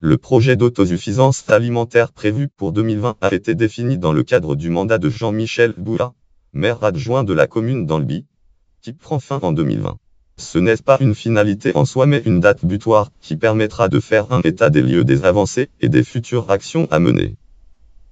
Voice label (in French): homme